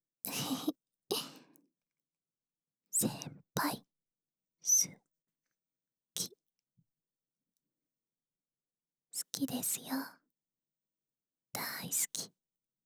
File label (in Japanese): 耳元で先輩好き・愛してる (左右)